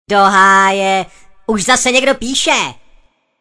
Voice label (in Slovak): sms hlásky cz